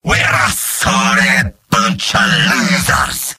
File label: jeers; demoman; video games; source engine; tf; mvm